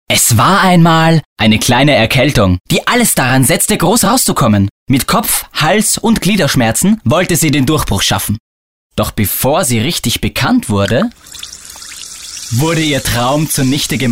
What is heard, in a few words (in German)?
werbung; deu